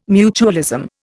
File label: "mutualism", Indian